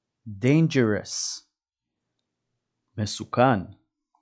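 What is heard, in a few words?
"dangerous" English, "מסוכן" Hebrew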